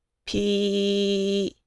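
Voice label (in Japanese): "ぴ", Japanese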